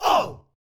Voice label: mens ou, anime

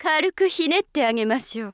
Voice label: witch start, voice

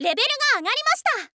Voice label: "level ga agarimashita", info girl voice

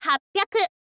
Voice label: "happyaku", info girl voice

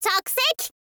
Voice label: line girl chakuseki

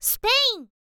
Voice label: "spain", girl; voice; info girl

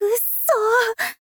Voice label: "uso", girl

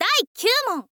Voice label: "daikyuumon", girl; voice

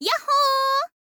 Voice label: "yoho", girl

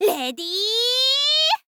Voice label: "ready", info girl voice

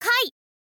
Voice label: "kai", info girl voice

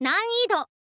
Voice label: "nanido", info girl voice

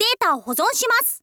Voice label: info girl; data wo hozon shimasu; voice

